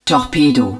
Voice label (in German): torpedo launcher, german, hud; Battle Engine Aquila; XBOX